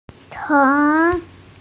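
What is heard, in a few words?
"ceng2", Mandarin Chinese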